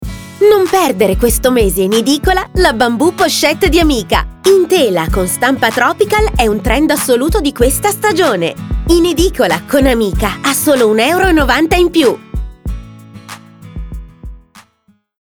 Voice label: SPOT BEAUTY